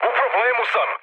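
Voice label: Serbian aircraft damaged mood med, speech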